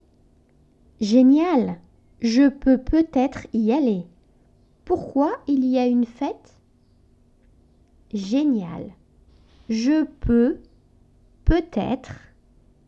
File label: French